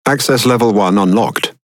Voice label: Pirate Speech Access Level; World Of Warships

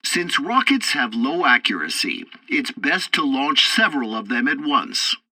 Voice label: assault rockets, english; War Thunder

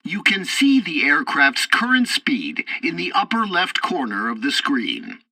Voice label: tutorial fighter throttle, english; War Thunder

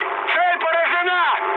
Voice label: bomb success, mood high, speech ru; War Thunder

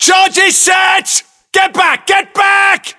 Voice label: voiceovers, US; english

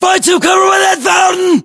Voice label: order cover fountain, US

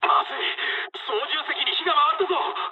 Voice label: on fire mood high, speech jp; War Thunder

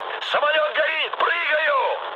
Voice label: on fire, mood med, speech ru; War Thunder

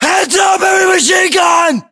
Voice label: US threat mg, battlechatter, english

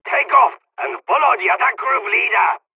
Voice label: second wave takeoff, dialogs serbian; War Thunder

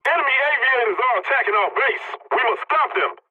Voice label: pilot base under attack, english; War Thunder

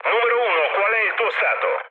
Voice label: chat, speech it; War Thunder

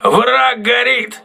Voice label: voice message enemy on fire, crew ships russian

